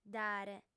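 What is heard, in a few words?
"dare", Sardinian, female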